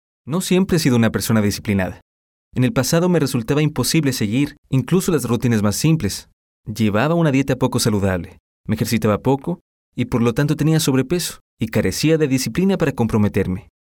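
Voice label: Spanish Latin American, male, spanish americas voice over talent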